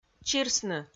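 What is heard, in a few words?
"чирсны", Komi, female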